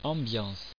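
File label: "ambiance", French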